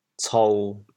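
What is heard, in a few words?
"cou1", Teochew, chaoyang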